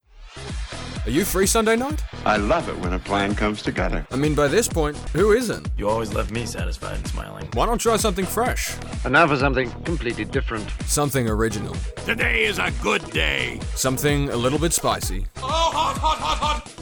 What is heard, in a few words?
Radio Promo